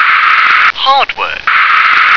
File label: "hardware", English